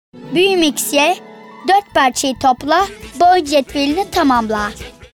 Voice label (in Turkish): Reklam